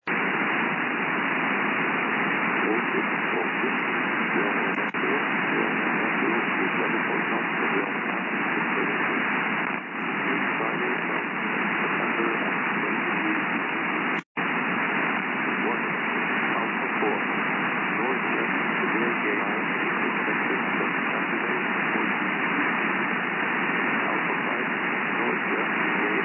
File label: Bear Island 1757 Bear Island Radio